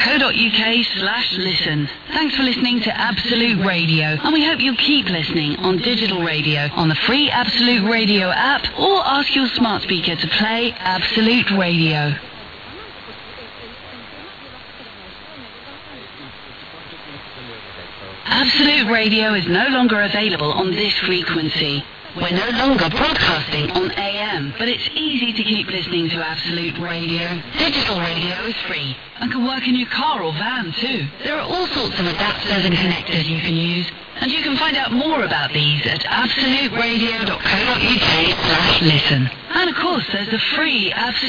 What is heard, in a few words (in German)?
websdr NL Frequenzabsage 1215 kHz